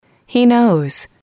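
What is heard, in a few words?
"he knows", English, female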